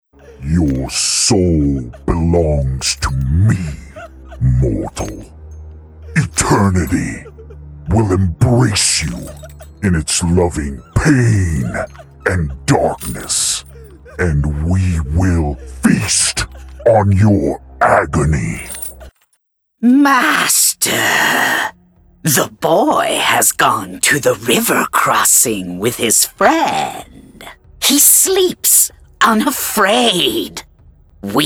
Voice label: Evil Voice Compilation